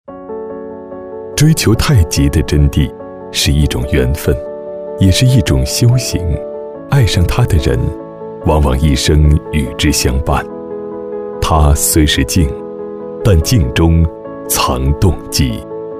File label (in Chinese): 男 品质独白; 太极